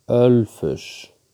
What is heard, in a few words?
"Ölfus" pronunciation